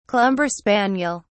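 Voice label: "clumber spaniel", English, British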